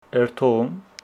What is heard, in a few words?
Homshetsi i will go